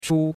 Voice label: "chu1", chinois; Cours de Chinois